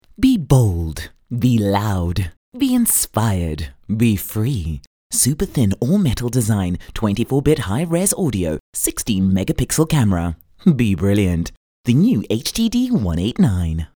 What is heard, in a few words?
English Conversational; OSM Talent